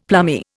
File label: "plummy", Indian; Dictionary